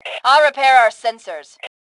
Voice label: Self Repair Sensors, Female; T2 Female 03